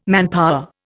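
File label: "manpower", Indian English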